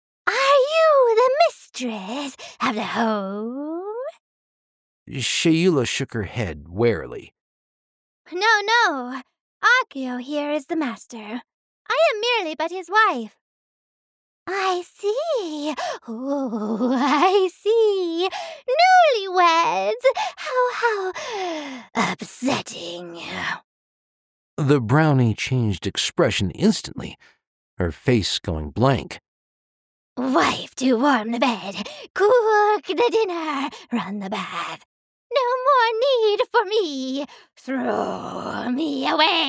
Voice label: Fun Dual Narration